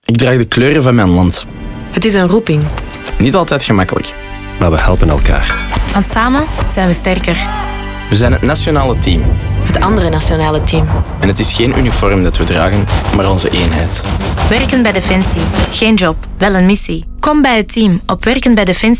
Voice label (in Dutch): Radio, nl; Defensie